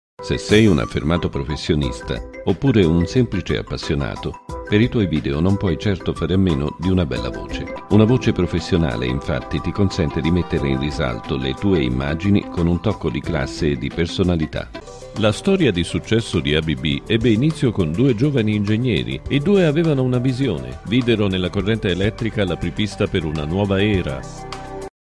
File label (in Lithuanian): VYR Italu balsas, vyras